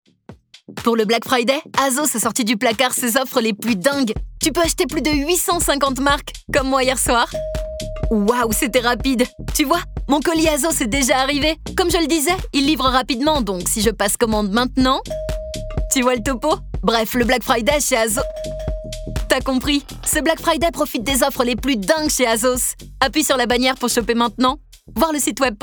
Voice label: french promos; professional French voice actress and singer